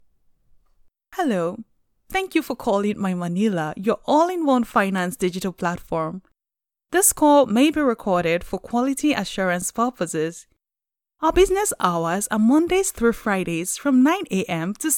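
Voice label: English West African, female, interactive voice response; accent of africa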